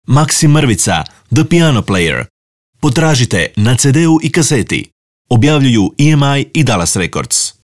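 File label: croatian male commercial, voice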